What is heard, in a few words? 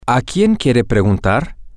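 Spanish, male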